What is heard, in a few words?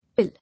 "Ill", English